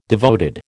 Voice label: "devoted", American

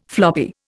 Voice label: "floppy", Indian

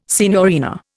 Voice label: "signorina", Indian

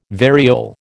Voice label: "variole", American

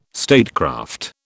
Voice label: "statecraft", British

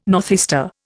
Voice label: "northeaster", Indian

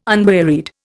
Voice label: "unwearied", Indian

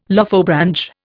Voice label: "Lophobranch", Indian

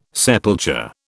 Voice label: "sepulture", British

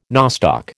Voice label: "nostoc", American

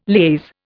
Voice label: "liaise", Indian

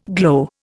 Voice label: "glow", Indian

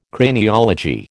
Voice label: "Craniology", American

